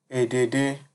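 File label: "edede", Nigerian Pidgin, male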